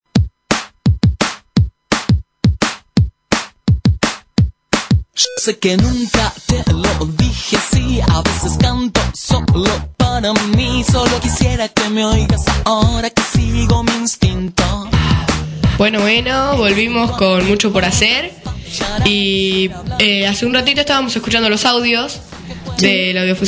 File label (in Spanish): locutor